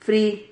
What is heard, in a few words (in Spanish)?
"fri", Silabas